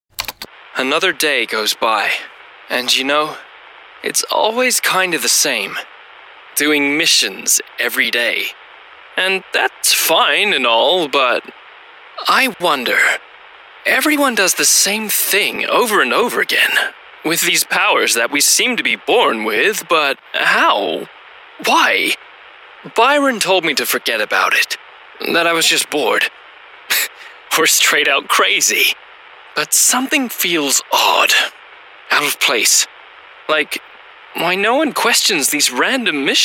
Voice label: english british podcasts

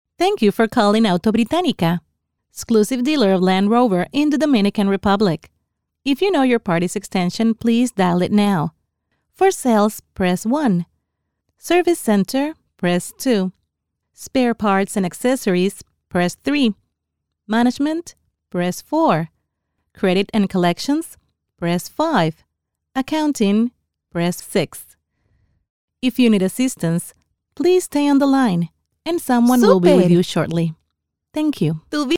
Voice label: english corporate videos

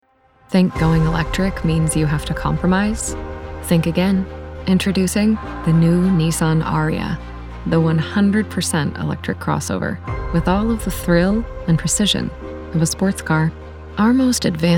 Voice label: english american

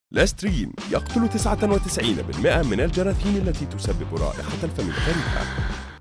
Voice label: arabic commercial

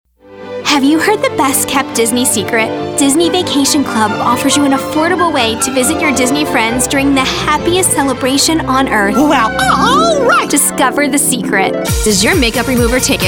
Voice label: english american commercial

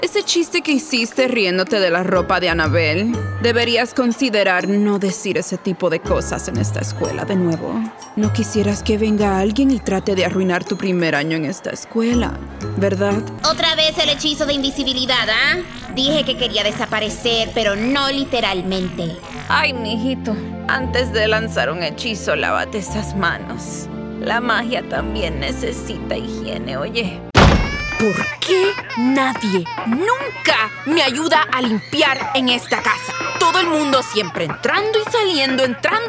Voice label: Spanish Latin American animation; professional bilingual (English and Spanish) voice actor

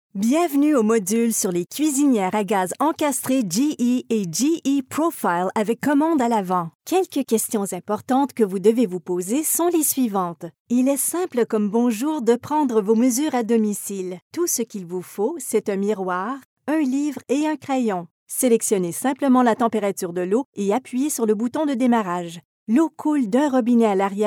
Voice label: french canadian commercial; französisch-kanadische Synchronsprecherin

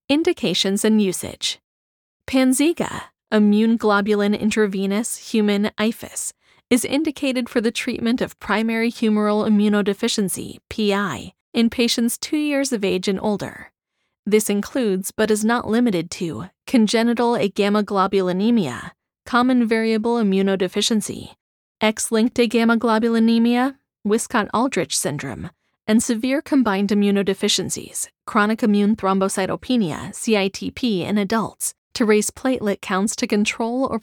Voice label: english american medical narration; United States; accent-neutral US English; from Columbus, Ohio

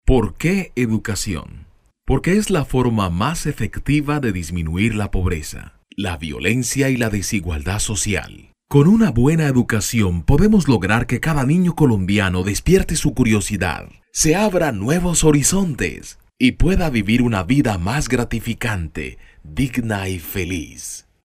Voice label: spanish latin american audiobooks

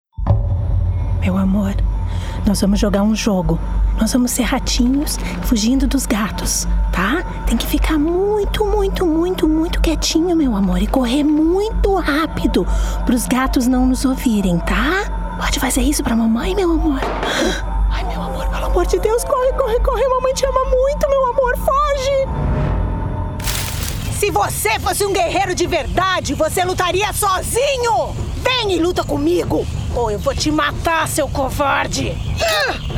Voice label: Portuguese Brazilian video games

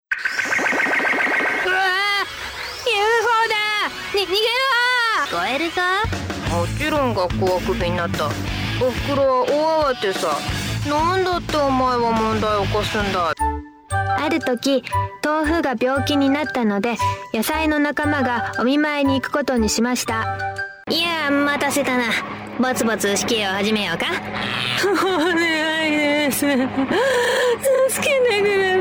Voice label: Japanese cartoon animation

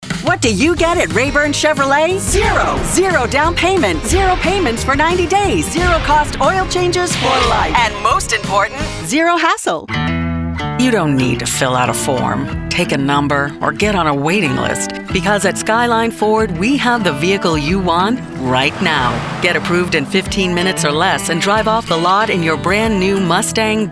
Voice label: english american automotive

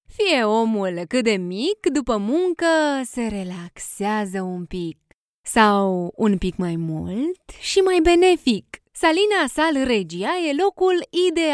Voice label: romanian commercial

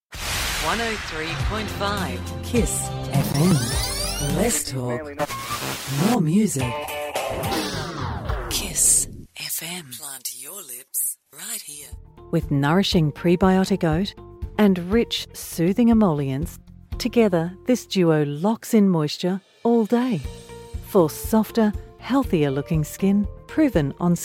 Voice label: english australian commercial

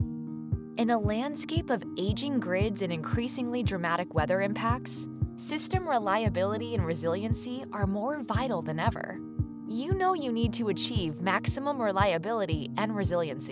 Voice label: english american narration; professionelle Synchronsprecherin

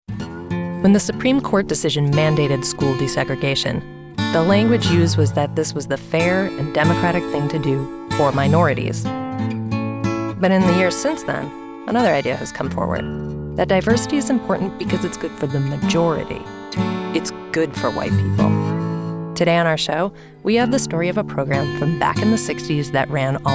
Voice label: english american podcasts

